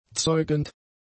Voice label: "zeugend", German; adjectives

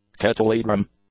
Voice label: "kettledrum", English; nouns

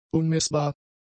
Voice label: "unmessbar", German; adjectives

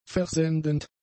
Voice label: "versendend", German; adjectives

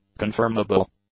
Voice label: "confirmable", English; adjectives

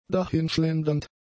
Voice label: "dahinschlendernd", German; adjectives

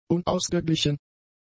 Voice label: "unausgeglichen", German; adjectives

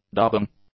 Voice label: "dubbing", English; nouns

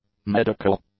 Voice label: "medical", English; adjectives

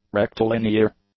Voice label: "rectilinear", English; adjectives

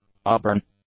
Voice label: "auburn", English; adjectives

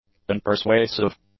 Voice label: "unpersuasive", english; adjectives

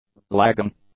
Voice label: "lagging", English; nouns

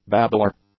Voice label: "babbler", English; nouns

